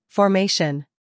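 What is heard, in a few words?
"scandal", American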